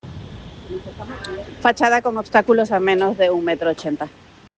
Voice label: Voice message